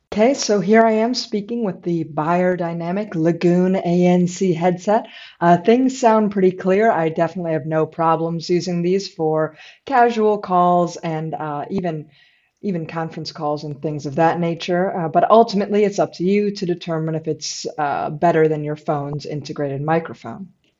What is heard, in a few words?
ANC microphone demo; Beyerdynamic Lagoon